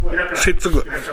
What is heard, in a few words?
"sezuku", minamiyamagata